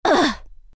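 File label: pain, Chinese; Legcom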